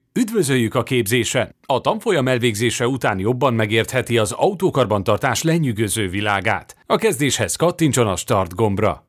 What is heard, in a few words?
hungarian voice e-learning